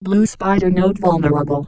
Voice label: blue vulnerable; alienarena